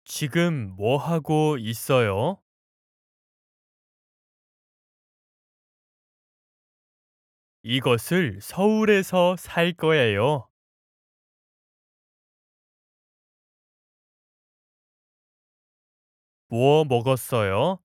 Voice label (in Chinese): 外籍韩语男自然对话